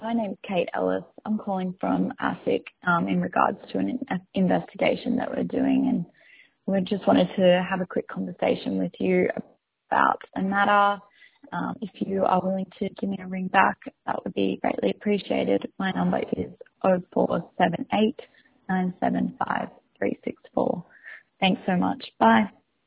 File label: voicemail examples